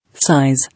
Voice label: "Size", English